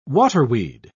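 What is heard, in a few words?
"waterweed", British English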